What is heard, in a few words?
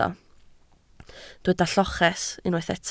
"lloches", Welsh